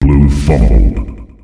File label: Blue Pass Fumbled, announcer male; Unreal Tournament; ut2004